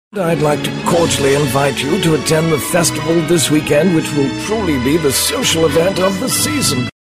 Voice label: BRITISH accent voiceover distinguished

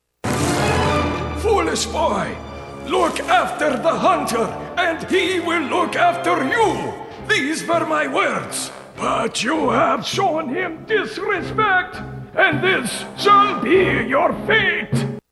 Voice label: VILLAIN voiceover

